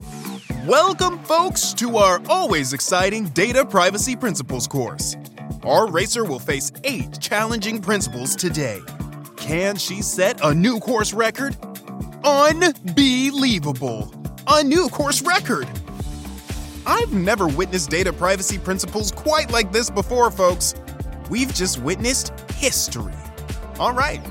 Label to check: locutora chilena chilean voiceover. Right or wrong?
Wrong